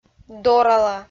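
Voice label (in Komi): "дорала", Komi, female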